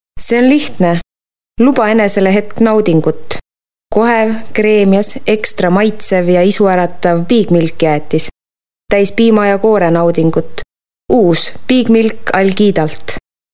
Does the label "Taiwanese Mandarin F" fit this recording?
No